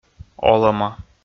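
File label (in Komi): "олӧма", Komi, male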